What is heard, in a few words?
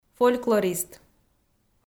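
"фольклорист", Komi, female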